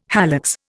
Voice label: "hallux", Indian